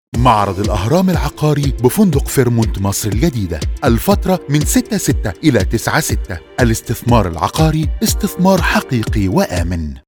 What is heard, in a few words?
male arabic sample, voice over; ivr voice over supplying; Male Arabic sample 1